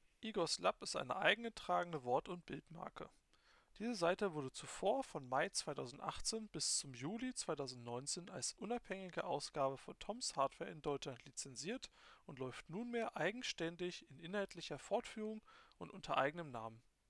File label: Mic; CloudAlpha